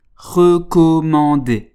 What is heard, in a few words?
"recommander", French